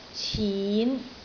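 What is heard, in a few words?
"cin5", Cantonese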